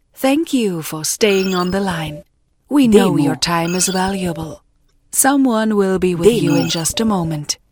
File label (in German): Ansage Englisch Staying on the line